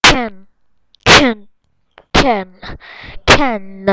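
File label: "can", spoken word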